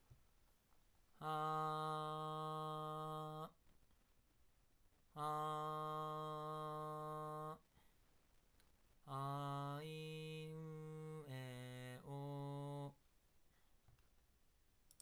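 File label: AG03 mic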